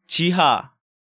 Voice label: "Jiha", Korean; DigitalSoju